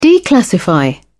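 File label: "declassify", British English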